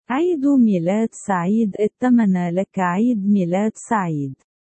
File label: happy birthday my dear Arabic